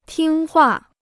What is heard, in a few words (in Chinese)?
"听话", Chinese